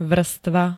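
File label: "vrstva", Slovak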